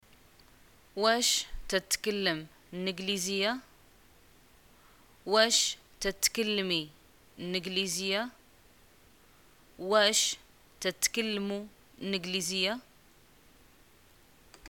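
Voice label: do you speak English, Moroccan arabic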